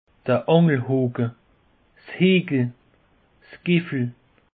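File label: "angelhoke", Alsatian; peche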